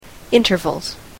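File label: "intervals", English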